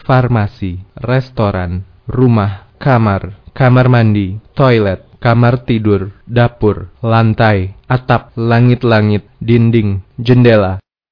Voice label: indonesian places